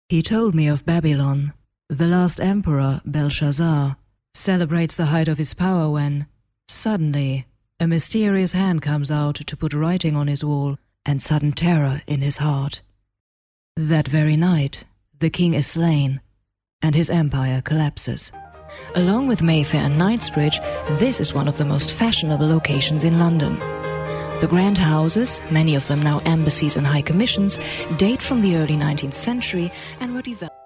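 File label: German English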